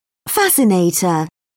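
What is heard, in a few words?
"fascinator", British English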